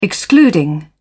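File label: "excluding", British English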